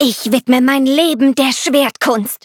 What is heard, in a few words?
level up, de; Cookie Run